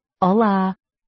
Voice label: hi portuguese european